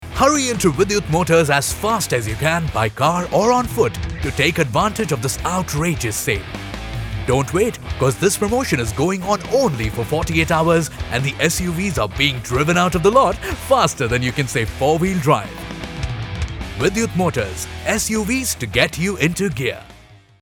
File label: english radio imaging, hindi voice actors; formally trained and experienced